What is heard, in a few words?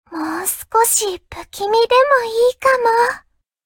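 level up, Japanese; cookie run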